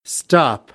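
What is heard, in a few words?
"stop", American, English; AmericanBritish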